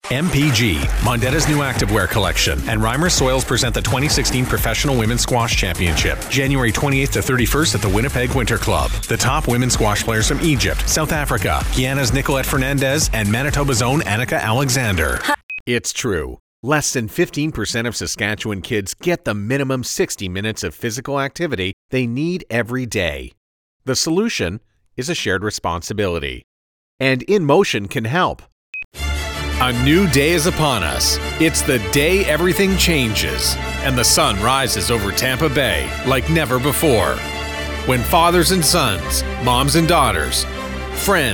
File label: 30 second ad